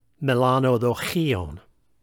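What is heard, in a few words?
"melanodocheion", Koine Greek; word picture writing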